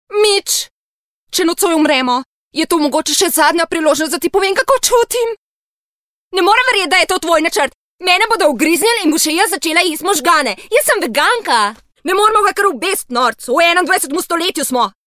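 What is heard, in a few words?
slovenian female character; voice demos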